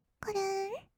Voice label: 「くるん」, kawaii voice; 1200 kawaii voice!!; 色々なワンショット

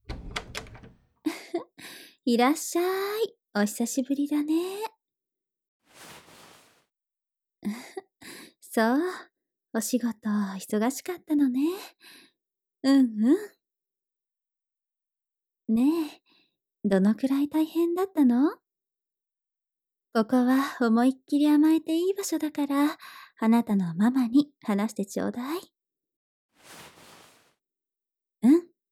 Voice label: お出迎えママゲップ 効果音入り

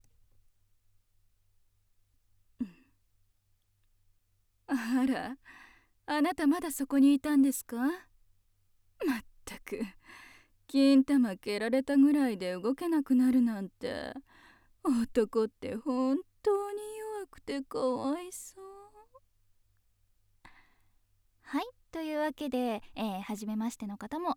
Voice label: フリートーク

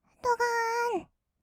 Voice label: "どがーん", kawaii voice; ASMR; 色々なワンショット

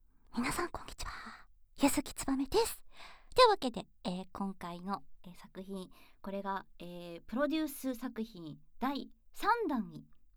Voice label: 先輩彼女フリートーク, ASMR

